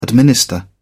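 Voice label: "administer", British English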